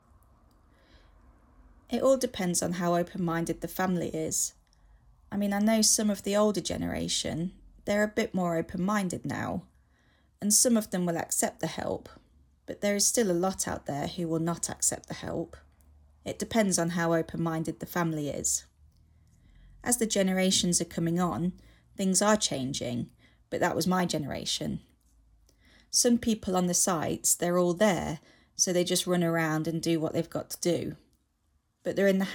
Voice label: voiceover; Pathfinder; improving support carers somali and gypsy and traveller communities